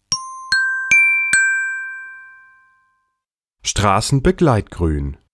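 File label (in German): "Strassenbegleitgruen", German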